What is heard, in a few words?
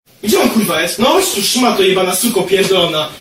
angry polish kid